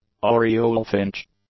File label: "Oriole-Finch", English; nouns